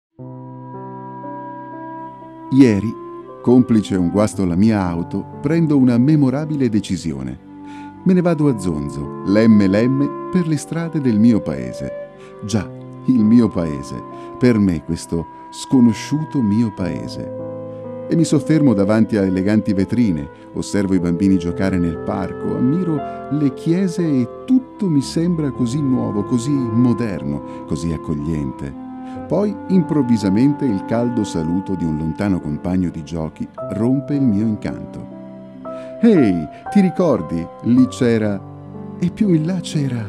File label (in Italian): Documentario narrativo